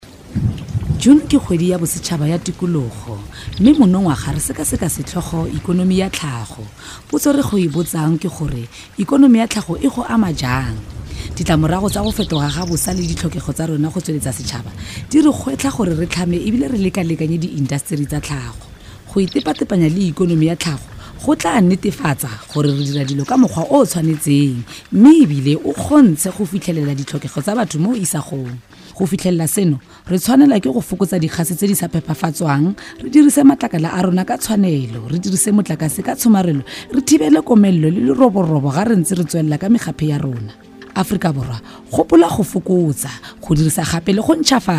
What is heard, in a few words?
tswana; Setswana; National Environment Month 2012